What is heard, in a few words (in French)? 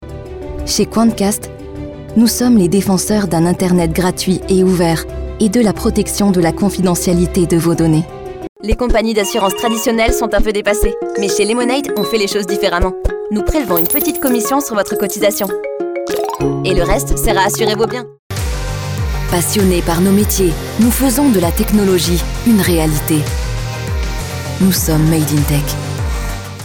Voix-Off Commerciale